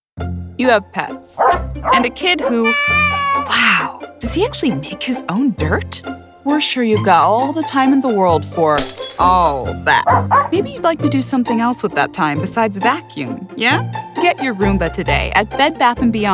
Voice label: explainer